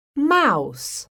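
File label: "MOUSE", English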